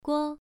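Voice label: "guo", Mandarin Chinese, first tone, pronunciation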